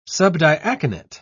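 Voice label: "subdiaconate", British English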